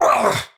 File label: Pain; Warframe; Tau; Dax; Knight